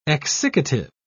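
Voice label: "exsiccative", British English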